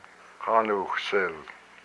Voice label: "I m sweating", hupa